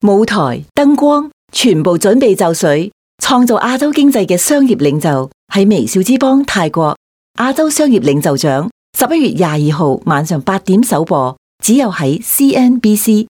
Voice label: Cantonese Female

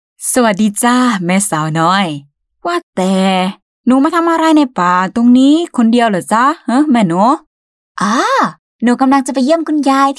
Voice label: Thai Female baby

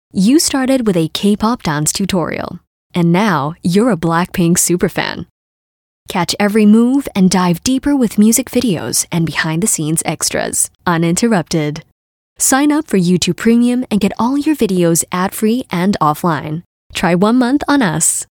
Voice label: Asian English all features

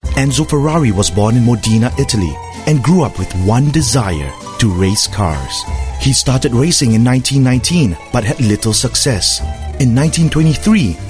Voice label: English Singaporean Male; Alfa Romeo

